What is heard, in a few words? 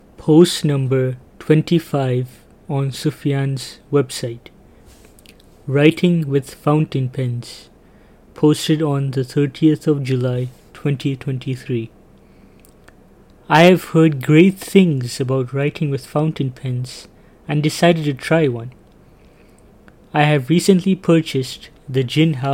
voiceover